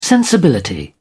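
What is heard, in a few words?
"sensibility", British English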